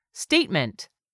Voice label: "STATEMENT", english; 3000 words